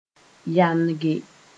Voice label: "yange", moore

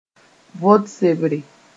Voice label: "vot sebre", moore

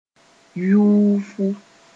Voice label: "yuufu", moore

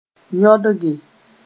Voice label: "yõdge", moore

